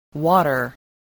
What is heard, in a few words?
"water", spelling